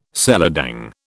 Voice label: "seladang", British

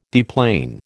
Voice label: "deplane", American

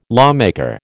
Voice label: "lawmaker", American

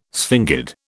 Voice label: "sphingid", British

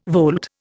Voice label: "volte", Indian

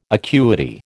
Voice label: "acuity", American

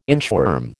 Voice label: "inchworm", American

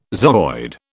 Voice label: "zooid", British

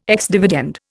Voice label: "ex dividend", Indian

